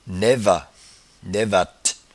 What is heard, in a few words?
"naivat", patois jura